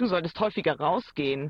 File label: german voice; BALLY; CORVETTE